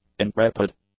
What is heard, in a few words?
"intrepid", english; adjectives